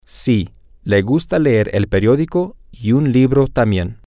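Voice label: yes he likes to read the newspaper and, Spanish, male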